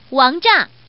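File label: Woman, "king bomb"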